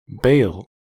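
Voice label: "bale", US English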